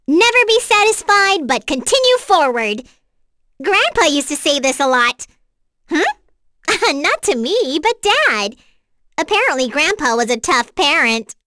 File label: vox, en; kingsraid; voices heroes